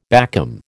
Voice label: "backcomb", American